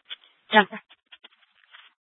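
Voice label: police dispatch, Scottsdale PD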